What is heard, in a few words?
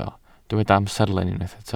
"amserlen", Welsh